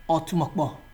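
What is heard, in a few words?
"otumokpo", Nigerian Pidgin, male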